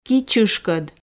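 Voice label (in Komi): "ки чышкод", Komi, female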